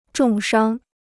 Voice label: "重伤", Chinese